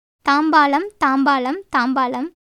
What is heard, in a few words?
Tamil